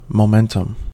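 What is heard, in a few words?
"momentum" US English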